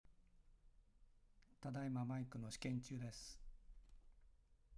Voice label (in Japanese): iPhone8Plus外付